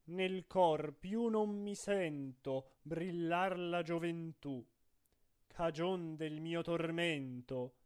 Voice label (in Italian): espressivo